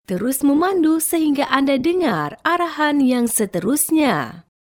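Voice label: Malay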